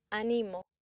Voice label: "animo", accent; laboratori fonetica; practiques accent

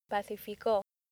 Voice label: "pacifico", accent; laboratori fonetica; practiques accent

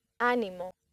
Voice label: "animo", accent; laboratori fonetica; practiques accent

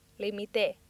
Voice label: "limite", accent; laboratori fonetica; practiques accent

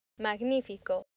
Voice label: "magnifico", accent; laboratori fonetica; practiques accent

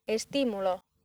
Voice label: "estimulo", accent; laboratori fonetica; practiques accent

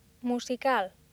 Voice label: "musical", accent; laboratori fonetica; practiques accent

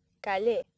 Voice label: "calle", accent; laboratori fonetica; practiques accent

